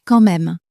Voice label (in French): "quand même", French; flashcards